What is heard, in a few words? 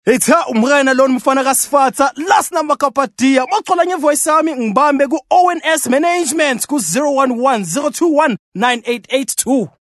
Zulu Conversational